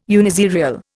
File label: "uniserial", Indian